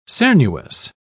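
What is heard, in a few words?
"cernuous", British English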